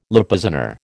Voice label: "Lipizzaner", American

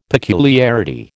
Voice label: "peculiarity", American

